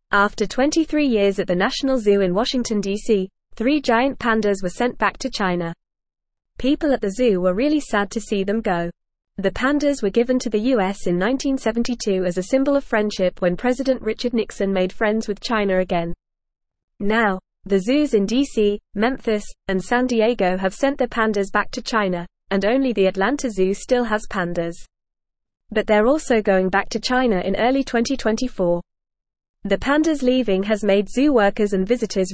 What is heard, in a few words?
English Upper Intermediate FAST Reading; Pandas Return to China After 23 Years in US; English Newsroom